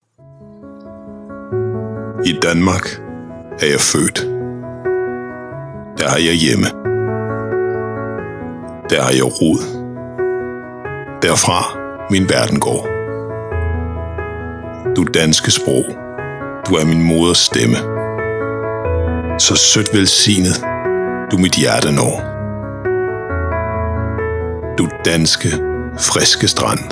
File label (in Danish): Deep Danish Voiceover